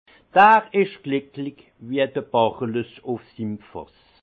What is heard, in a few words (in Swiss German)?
"glicklich", Alsace; vin; celui çi est heureux comme bacchus sur son tonneau